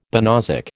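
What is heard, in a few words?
"banausic", American English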